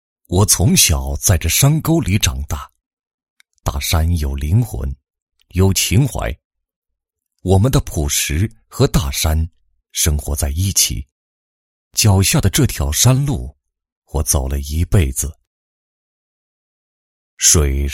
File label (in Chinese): 男 宣传广告 宣传介绍; 土王酒业